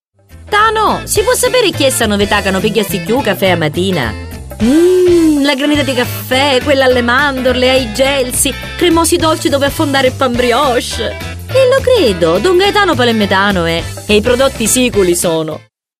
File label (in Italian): siciliano; VOCI MEDIAVOX